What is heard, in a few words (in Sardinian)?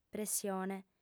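"pressione", female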